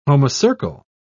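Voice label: "homocercal", British English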